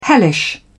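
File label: "hellish", British English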